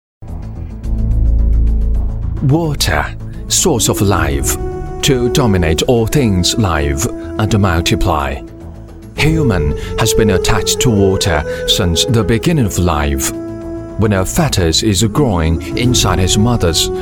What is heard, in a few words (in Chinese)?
英男-讲解; 上善若水